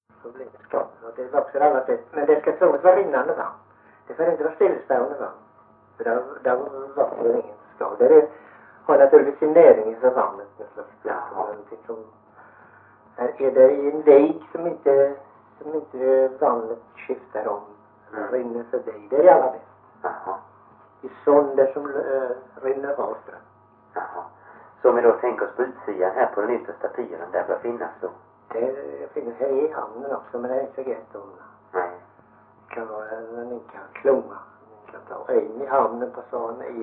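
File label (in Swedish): Morup Halland, Svenska sagor dialekter; Folkminnen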